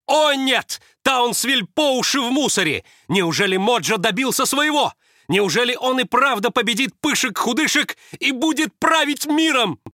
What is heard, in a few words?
narr